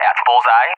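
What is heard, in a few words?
"at bullseye", English speech; AWACS; DCS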